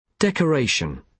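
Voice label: "decoration", British English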